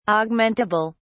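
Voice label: "augmentable", British English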